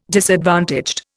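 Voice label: "disadvantaged", Indian English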